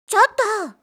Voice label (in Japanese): "chottoo", kanjou